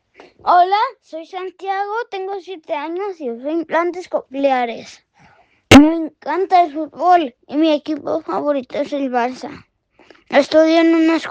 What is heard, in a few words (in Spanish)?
Testimonio; testimoniales